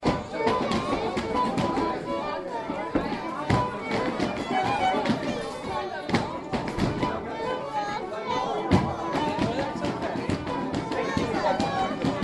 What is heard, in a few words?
child complaining about a traditional sword dance